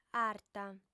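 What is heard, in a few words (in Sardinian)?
"arta", female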